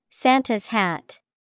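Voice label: santas hat, English vocabulary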